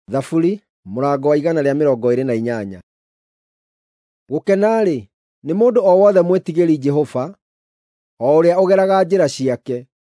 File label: psalms, gikuyu drama